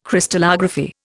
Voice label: "Crystallography", Indian